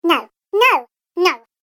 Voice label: Little Elf Says No, cartoon voice; Cartoon Voice Sound Effects

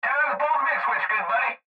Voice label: Cb Trucker Radio; Radio Voice; Blastwave

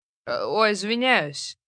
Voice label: Russian Sorry, 1 boy, 12 yrs., Russian - (Sorry); Talking; Etiquette, Foreign; child, kid, speak, talk; human voice; VoiceBox

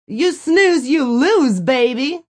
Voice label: You Snooze You Lose, Female; Talking Sound Effects; VoiceBox; Twistedtracks

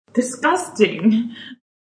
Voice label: A woman says Disgusting; Talking (Sound Effects:Voice)

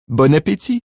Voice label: "Bon appetit", Male; Talking Sound Effects

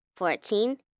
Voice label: Numbers "Fourteen", 1 child, girl counting

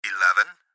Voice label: Gps Voice, Male; Eleven; Speaker Adult Male; Recorded Voice; Blastwave

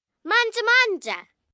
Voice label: Italian Eat Eat, Calls, 1 girl, 9 yrs., Italian; Talking Sound Effects

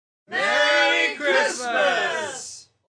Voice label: Merry Xmas Group, Group; Holiday; VoiceBox

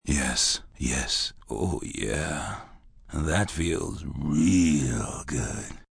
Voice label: Yes Yes Oh Yeah, male, deep voice, sexy; Sexy, fun; Sex Sound Effects

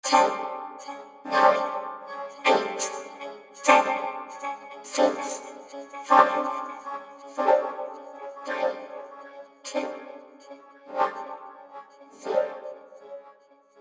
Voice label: Countdown Fembot Voice Fades Out; Robot Sound Effects; science fiction sound effect

